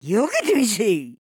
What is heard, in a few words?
necromancer oldwoman attack, voice